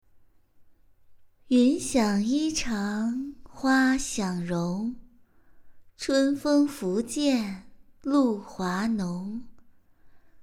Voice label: 游戏 配音 女声

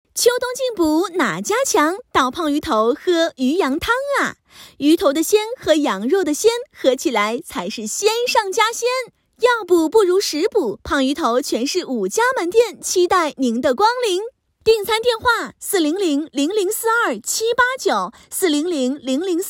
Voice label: 广告 配音

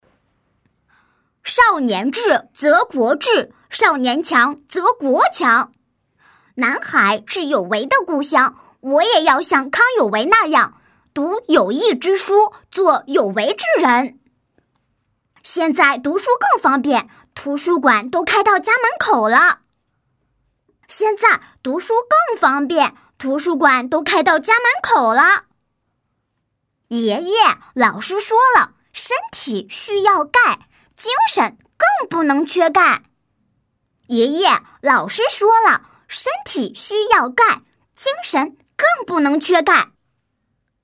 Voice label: 男童少年

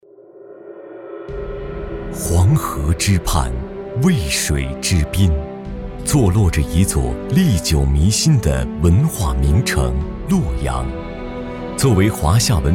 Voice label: 大气 宏伟 专题

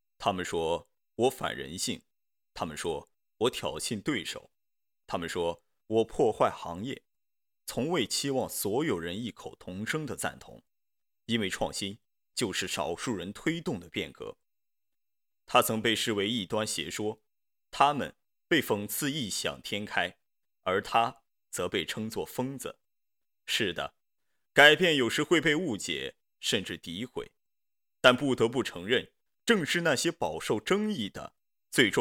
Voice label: 磁性 广告 男低音

